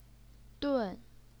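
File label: "dun", fourth tone, Chinese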